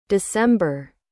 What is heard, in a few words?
"December", US; dɪˈsem.bər; تلفظ آمریکایی دسامبر